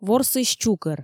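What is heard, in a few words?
"ворсысь чукӧр", Komi, female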